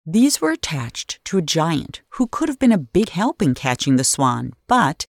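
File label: Voice Narration Village Opener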